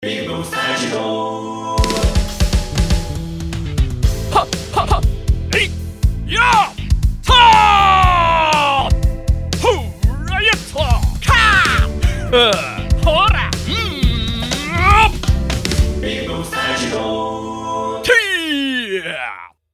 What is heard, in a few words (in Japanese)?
男性格闘ボイス攻撃, 効果音; ぴぐみょんスタジオ; ぴぐみょん効果音素材集011; 男性格闘ボイス攻撃サンプル